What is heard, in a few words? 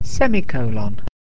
"semicolon", English